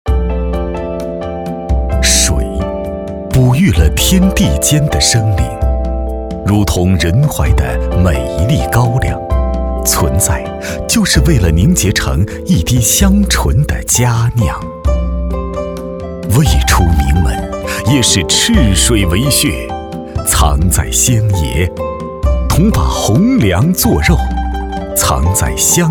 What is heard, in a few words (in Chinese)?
男 酒类广告 成熟浑厚